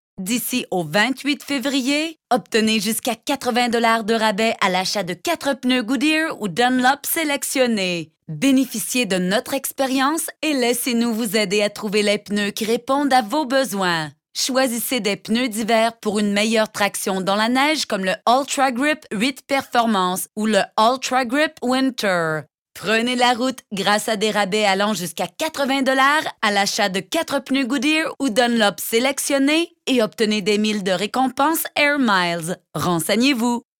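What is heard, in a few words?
French Canadian IVR; Goodyear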